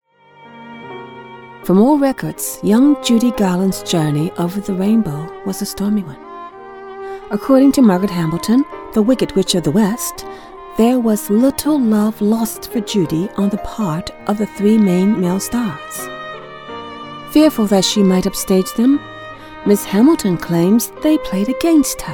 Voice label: british narration documentary